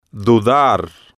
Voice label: "dudar", spanish